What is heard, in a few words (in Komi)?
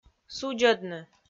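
"судзӧдны", Komi, female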